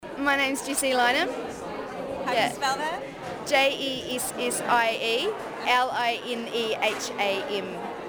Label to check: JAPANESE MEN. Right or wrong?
Wrong